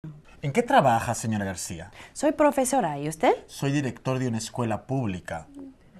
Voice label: greetings dialogue; novice